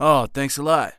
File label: Thanks, Human Male; zps; HumanMale-01